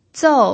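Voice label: "zou4", Mandarin Chinese pinyin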